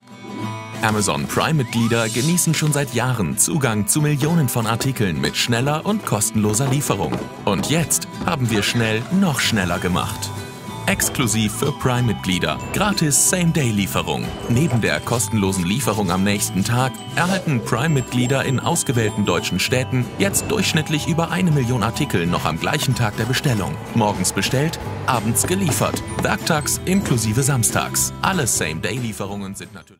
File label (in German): Produktfilm